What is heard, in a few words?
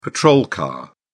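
"patrol car", British English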